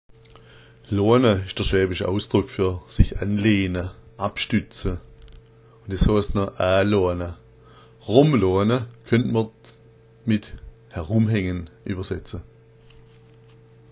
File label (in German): loana, schwaebisch